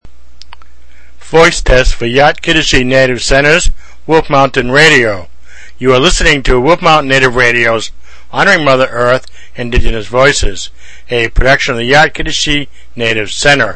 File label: RADIO VOICE TEST; WOLF MTN